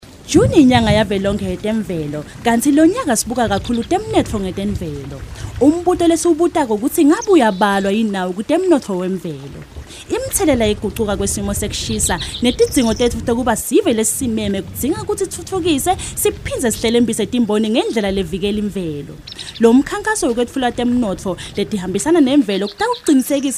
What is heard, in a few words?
swati; siSwati; National Environment Month 2012